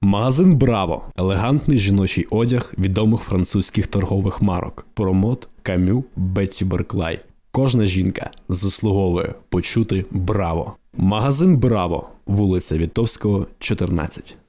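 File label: ukrainian male voice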